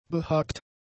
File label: "behagt", German; adjectives